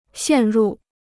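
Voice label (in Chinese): "陷入" Chinese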